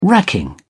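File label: "racking", British English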